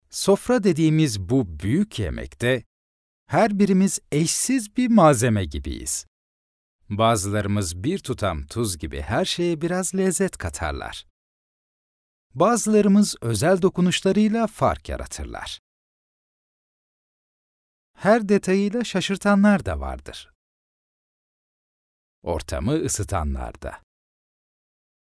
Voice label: warm commercial

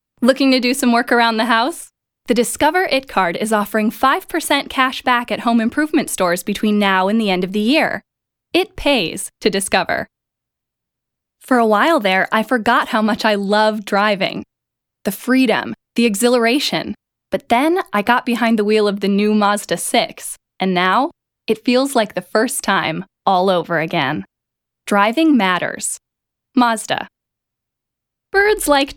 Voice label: Commercial no backing

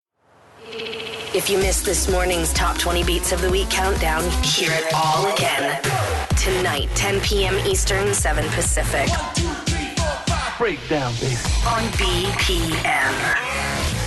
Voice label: Radio Promo Imaging

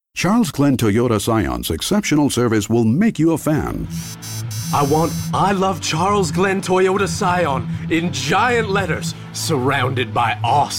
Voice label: Commercials

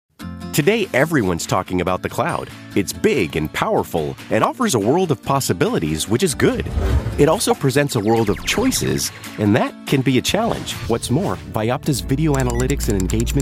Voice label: Corporate

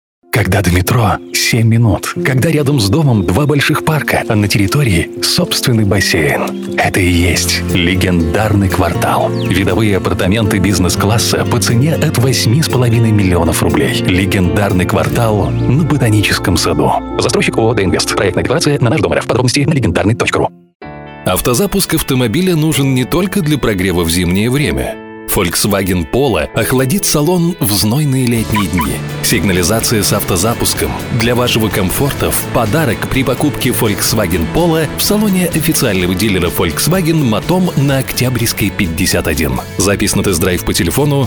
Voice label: Radio Commercials

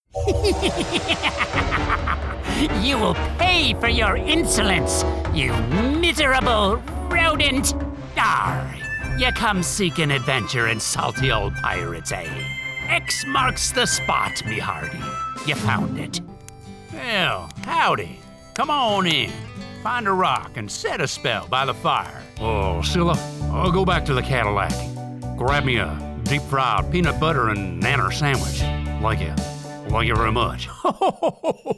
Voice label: Character Voice

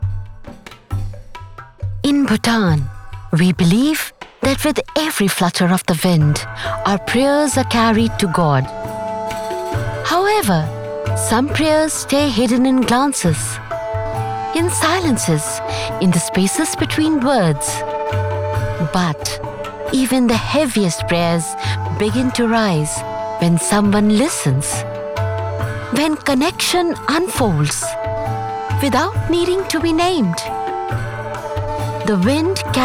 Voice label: docu English; Bhutan

